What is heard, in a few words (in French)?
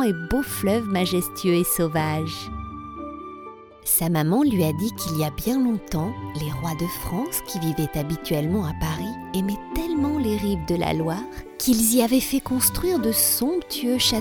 DOUX POUR ENFANT, AUDIOBOOK; LOULOU